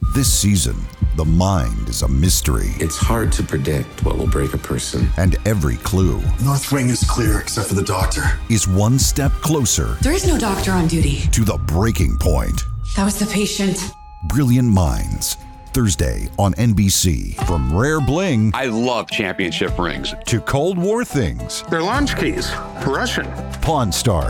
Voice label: PROMO